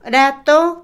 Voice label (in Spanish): Otomí, mujer; seis; Cantidad; INALI